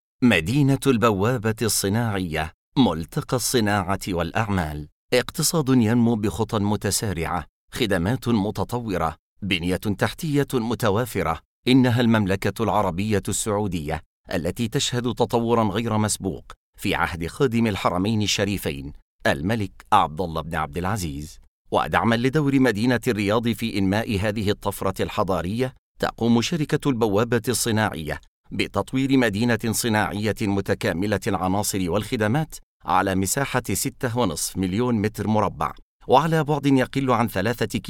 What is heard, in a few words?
Arabic